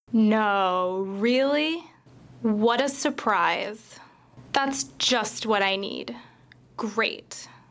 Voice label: sarcasm